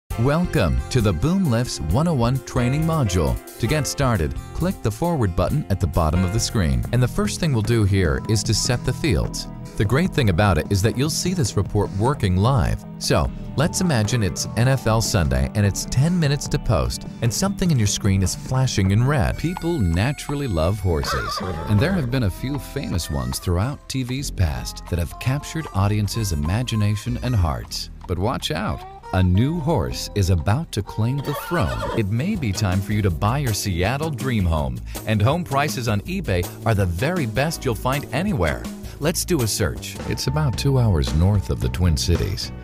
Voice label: american male